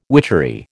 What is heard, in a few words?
"witchery", American